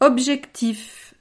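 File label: "objectif", French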